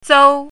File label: "zou1", Chinese pinyin